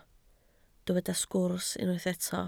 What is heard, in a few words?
"sgwrs", Welsh